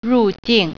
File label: "rù jìng", Mandarin